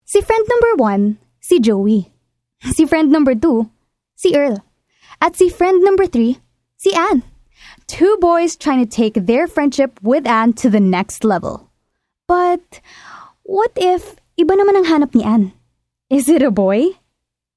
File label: Taglish (Warmhearted, Enthusiastic)